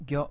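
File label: "gyo", Japanese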